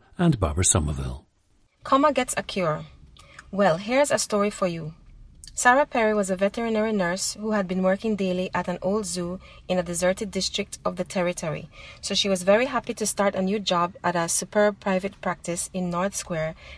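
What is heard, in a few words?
belize, International Dialects of English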